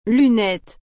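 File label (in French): "Lunettes", French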